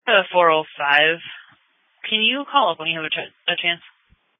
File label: Sheriff Dispatch